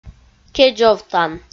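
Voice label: "кедзовтан", Komi, female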